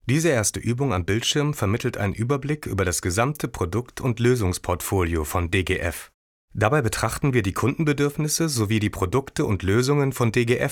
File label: elearning